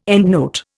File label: "endnote", Indian